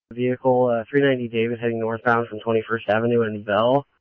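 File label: scanner, Phoenix Police Department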